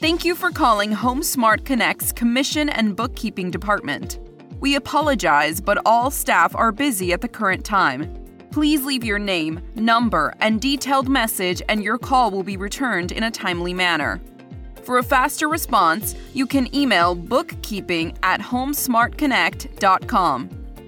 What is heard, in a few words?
english american ivr